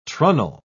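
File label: "trunnel", British English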